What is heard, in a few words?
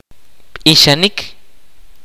kaqchikel; To sing